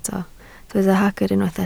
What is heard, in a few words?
"hagr", Welsh